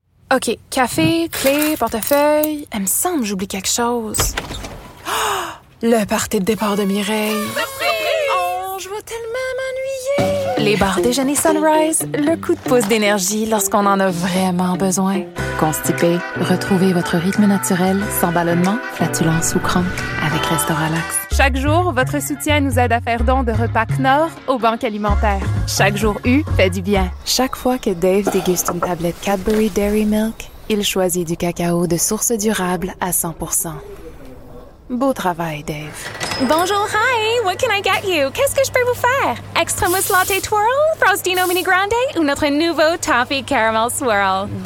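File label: French Canadian, commercial; GoLocalise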